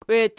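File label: "kweti" nominative, Archi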